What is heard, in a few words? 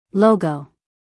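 "logo", American English, female; 1000 hours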